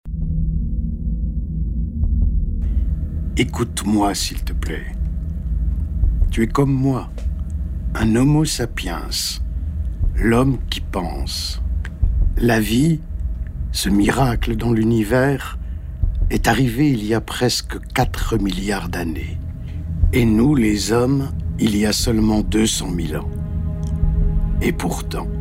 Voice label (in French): Documentaire; Home